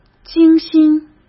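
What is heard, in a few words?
"精心", Mandarin Chinese; hsk; chinese-flashcards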